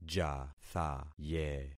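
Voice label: "jathaye", concatenated syllables; Affixing experiment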